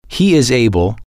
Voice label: he is able to can, English